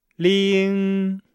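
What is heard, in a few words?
"ling", Chinese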